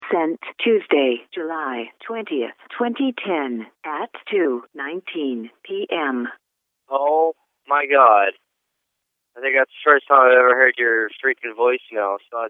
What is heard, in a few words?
voicemail